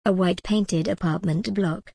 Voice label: en gb a white painted apartment block